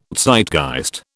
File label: "Zeitgeist", British